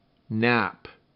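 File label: "nap", English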